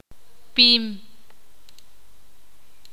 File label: ixil; grueso de objetos planos